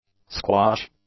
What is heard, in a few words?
"squash", english; nouns